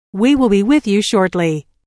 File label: We Will Be With You Shortly, Telephone prompt; Pre-recorded telephone on-hold message; Recorded Voice Sound Effects; Lynne Music